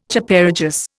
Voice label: "Chaparejos", Indian

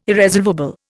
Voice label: "irresolvable", Indian

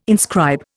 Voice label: "inscribe", Indian

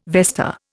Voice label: "vesta", Indian

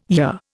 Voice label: "year", Indian

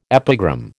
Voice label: "epigram", American

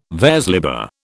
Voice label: "vers libre", British

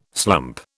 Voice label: "slump", British

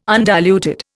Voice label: "undiluted", Indian

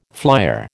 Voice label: "flyer", American

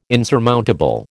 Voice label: "insurmountable", American

